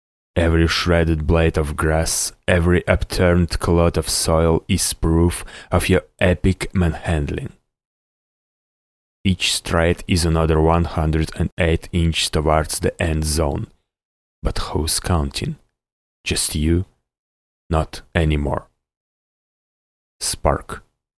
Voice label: male russian english with russian accent video game